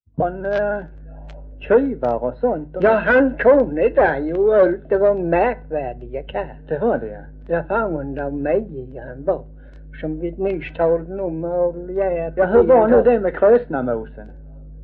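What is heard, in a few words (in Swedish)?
Örkened Skåne, dialekter svenska sagor; Folkminnen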